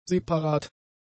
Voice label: "separat", German; adjectives

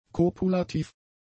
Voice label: "kopulativ", German; adjectives

